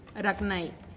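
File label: "ragnai", Teotitlán del Valle Zapotec; sudar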